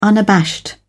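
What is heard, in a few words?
"unabashed", British English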